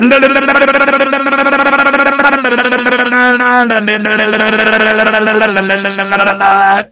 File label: jeers; sniper